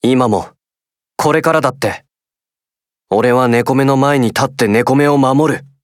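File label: victory, Japanese; sdorica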